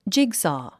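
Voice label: "jigsaw", English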